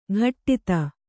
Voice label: "ಘಟ್ಟಿತ", Kannada

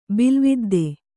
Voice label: "ಬಿಲುವಿದ್ದೆ", Kannada

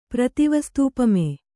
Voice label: "ಪ್ರತಿವಸ್ತೂಪಮೆ", Kannada

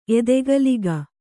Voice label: "ಎದೆಗಲಿಗ", Kannada

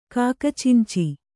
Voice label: "ಕಾಕಚಿಂಚಿ", Kannada

